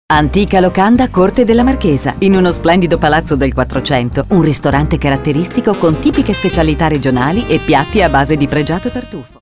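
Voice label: Italian, female, commercial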